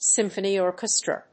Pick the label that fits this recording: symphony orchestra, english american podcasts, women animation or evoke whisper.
symphony orchestra